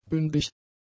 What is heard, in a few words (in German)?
"bündig", German; adjectives